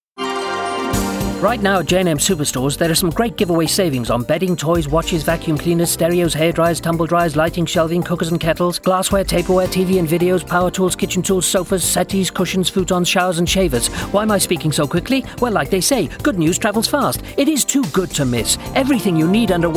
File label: Quick read, Upbeat; JM Superstores